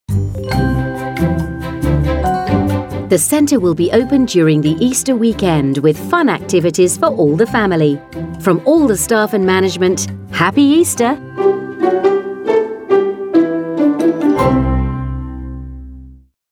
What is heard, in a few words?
Easter Female